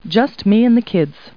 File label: people, kid